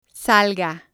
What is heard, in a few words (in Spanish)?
"salga", spanish